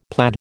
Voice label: "plaid", American